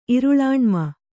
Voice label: "ಇರುಳಾಣ್ಮ", Kannada

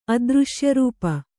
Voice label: "ಅದೃಶ್ಯರೂಪ", Kannada

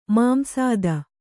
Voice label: "ಮಾಂಸಾದ", Kannada

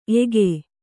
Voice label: "ಏಕೆ", kannada

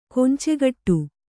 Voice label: "ಕೊಂಚೆಗಟ್ಟು", Kannada

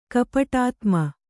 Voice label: "ಕಪಟಾತ್ಮ", Kannada

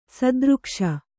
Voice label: "ಸದೃಕ್ಷ", Kannada

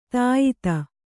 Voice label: "ಧೀಯುತ", Kannada

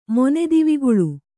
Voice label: "ಮೊನೆದಿವಿಗುಳು", Kannada

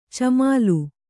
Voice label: "ಚಿಮ್ಮಲು", Kannada

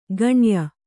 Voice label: "ಗಣ್ಯ", kannada

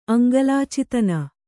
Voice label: "ಅಂಗಲಾಚಿತನ", kannada

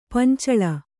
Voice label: "ಪಂಚಾಲ", Kannada

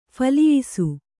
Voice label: "ಫಲಿಯಿಸು", Kannada; phaliyisu; (Verb); = ಫಲಿಸು.

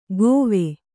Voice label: "ಕೋವೆ", kannada

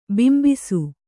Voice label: "ಬಿಂಬಿಸು", Kannada

